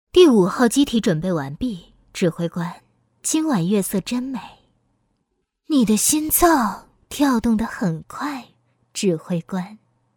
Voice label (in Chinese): 女 游戏 御姐